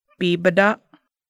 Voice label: "doll", Lushootseed